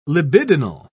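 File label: "libidinal", English, British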